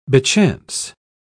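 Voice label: "bechance", British English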